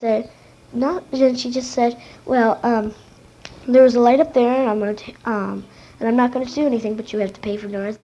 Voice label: Conversation with 12 year old white female Detroit Michigan; american english dialect